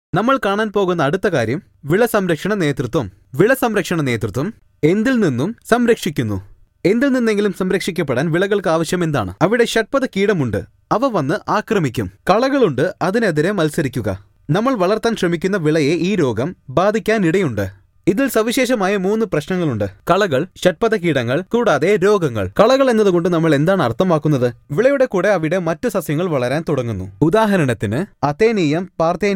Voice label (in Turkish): Malayca Erkek Handsome Malezya; malayca seslendirme; erkek sesler